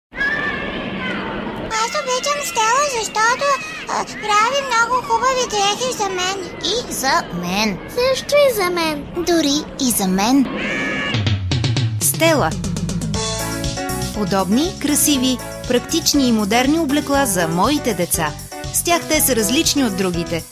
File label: Bulgarian character; voice over